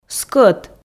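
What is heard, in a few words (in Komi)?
"скӧт", Komi, female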